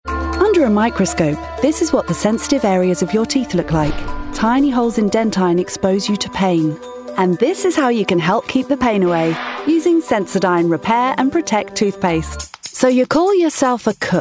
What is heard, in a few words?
Commercial Voice